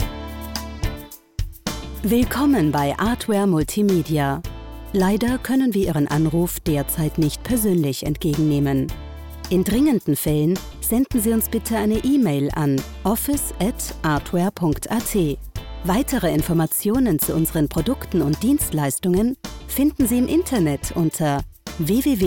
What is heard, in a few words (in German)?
telefonansage urlaubsansage